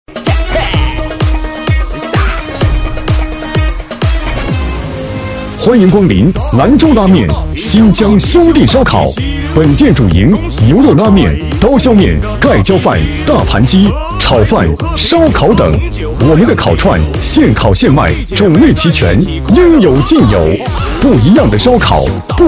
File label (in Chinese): 激情促销男; 兰州拉面新疆兄弟烧烤